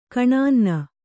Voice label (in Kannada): "ಕೆನ್ನನೆ", kannada